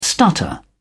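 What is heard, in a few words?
"stutter", English, uk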